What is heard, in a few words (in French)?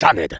Engineer negative vocalization, fr